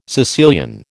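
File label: "Sicilian", American English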